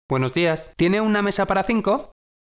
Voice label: conversation